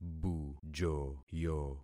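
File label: "bujoyo", concatenated syllables; Affixing experiment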